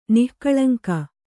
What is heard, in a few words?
"ನಿಃಕಳಂಕ", Kannada